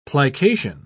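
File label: "plication", British English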